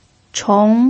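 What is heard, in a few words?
"chong" second tone, Mandarin Chinese pinyin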